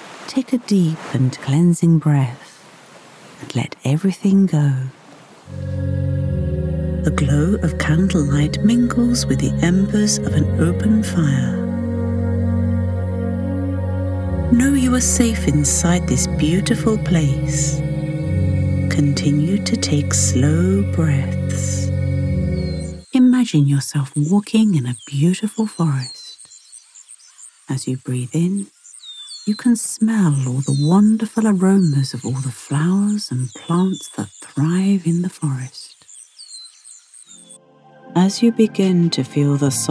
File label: Lovely Voice Meditation and Relaxation